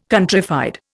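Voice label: "countrified", Indian English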